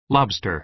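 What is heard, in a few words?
"lobster", American English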